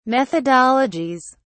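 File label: "methodologies", English, British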